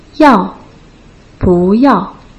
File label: "yao bu yao", Putonghua